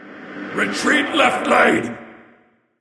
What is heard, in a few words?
vox retreat; smite; xingtian